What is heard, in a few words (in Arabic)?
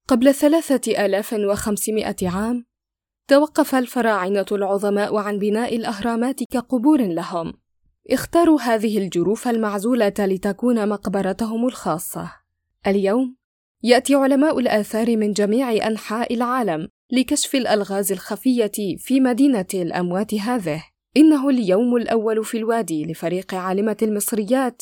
وثائقي